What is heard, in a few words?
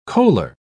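"coaler", English, British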